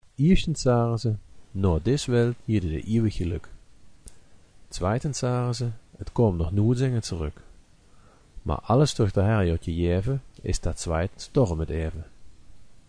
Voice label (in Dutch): Ze zaare, gedichten in het kerkraads dialect